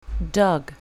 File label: "dog", English; pet animals; colour your english